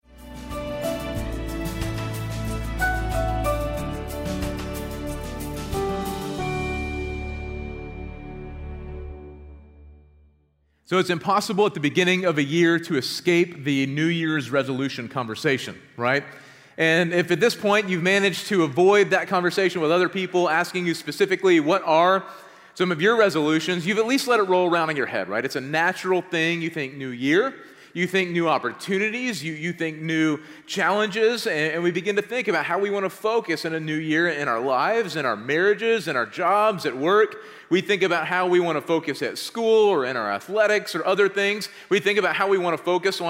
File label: Sermon English